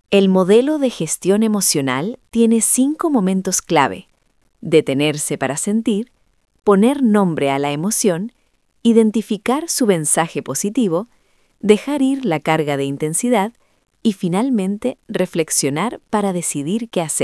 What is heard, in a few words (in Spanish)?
locucion